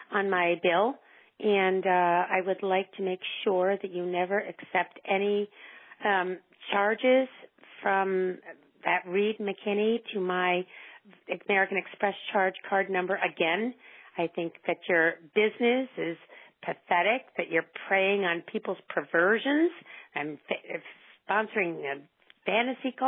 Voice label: Voice Mail Message; fraud